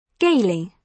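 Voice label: "gaily", British English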